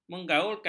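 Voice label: "menggaulkan", Malay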